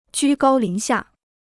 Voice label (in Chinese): "居高临下", Chinese